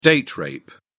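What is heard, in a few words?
"date rape", English, British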